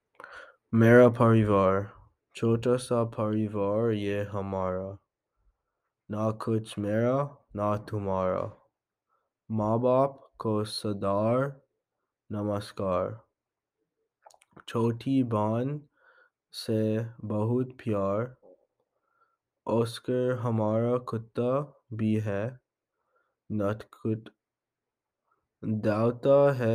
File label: Poem; Hindi